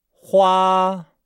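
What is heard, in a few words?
"hua", Chinese; Nihao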